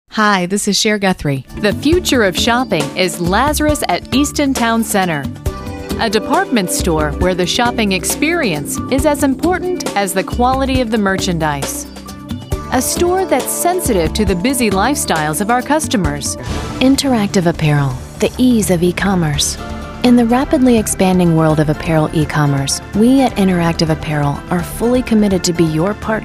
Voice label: narration, women